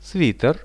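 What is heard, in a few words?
"sweater", Russian; свитер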